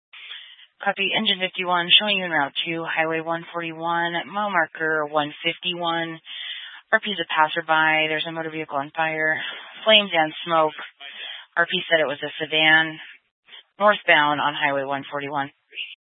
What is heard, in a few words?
Fire Dispatch